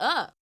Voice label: "Yeah What's Up", Yamaha DJX 2B